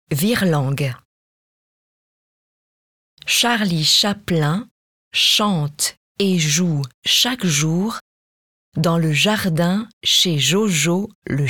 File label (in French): Virelangue; Rythmes et sons; Dis Donc 5; Unité 2